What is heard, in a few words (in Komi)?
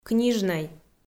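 "книжнӧй", Komi, female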